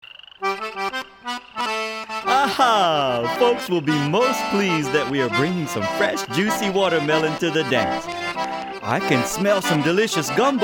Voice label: Narration